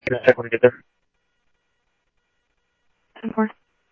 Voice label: Tempe Police, scanner audio